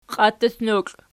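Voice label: "qiattutnokl" nominative, Archi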